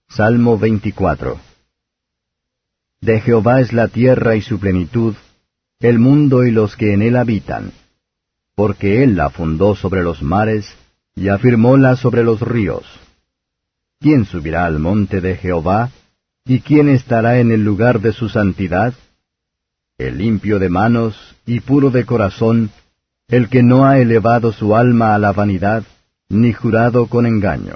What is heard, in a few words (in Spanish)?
salmos spanish